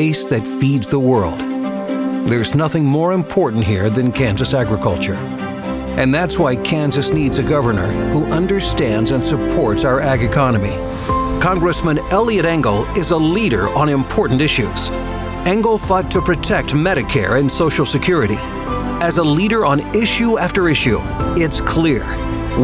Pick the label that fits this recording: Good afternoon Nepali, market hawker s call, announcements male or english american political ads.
english american political ads